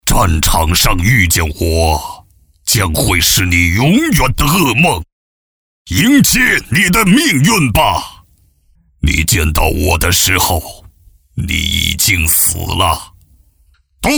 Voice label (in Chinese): 男 角色 恶魔配音